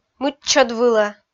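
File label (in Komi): "мыччӧд вылӧ", Komi, female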